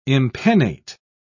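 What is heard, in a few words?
"impennate", British English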